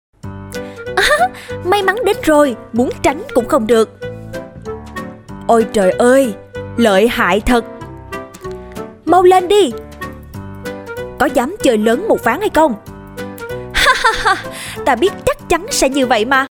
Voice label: South Southern Female Female, Vietnamese